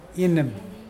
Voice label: burn, Mokilese